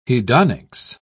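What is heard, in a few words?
"hedonics", British English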